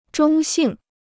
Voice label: "中性", Chinese